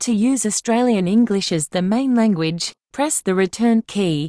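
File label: Voice Over Instructions English Australia; Language Chooser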